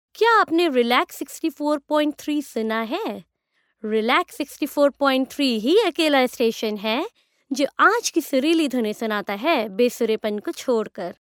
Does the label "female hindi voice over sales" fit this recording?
Yes